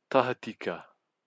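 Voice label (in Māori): "tahatika", Māori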